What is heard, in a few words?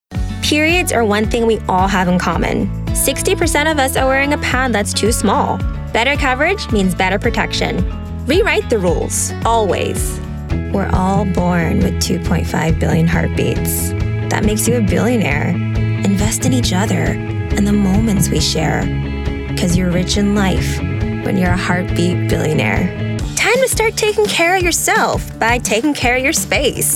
Commercial, female voices